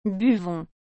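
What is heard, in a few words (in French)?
"Buvons", French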